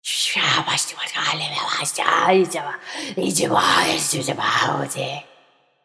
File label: Creepy Whisper - Raw